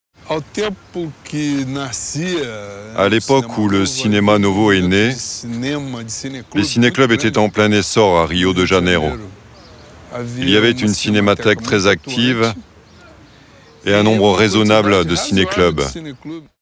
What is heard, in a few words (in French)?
voix over